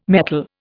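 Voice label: "metol", English, Indian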